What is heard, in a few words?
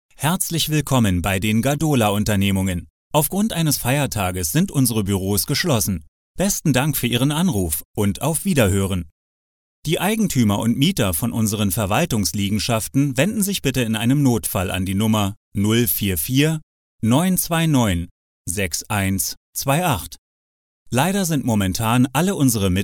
German male